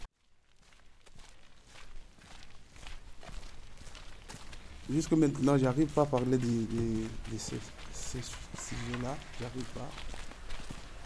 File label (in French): voix qui résonne